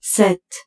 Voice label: French - 7

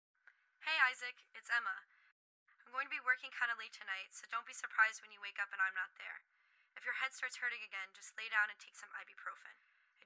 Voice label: Voice voicemail

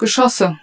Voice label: German - Missiles; lander windows gamerip 1999

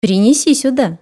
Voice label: Female Bring here

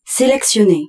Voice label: French, Selected

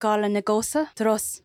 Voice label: Dialogue Generic

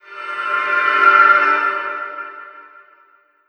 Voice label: Druidess passive hallo

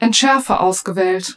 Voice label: German, Passsel

